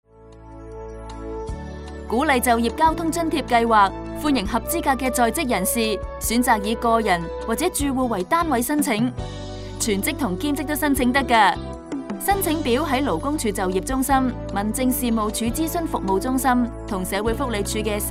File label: Radio cantonese